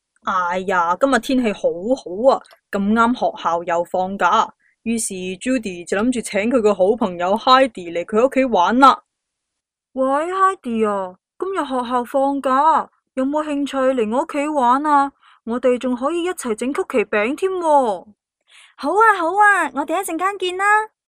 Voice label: cantonese female chinese voiceover locutora china; locutora china cantonesa.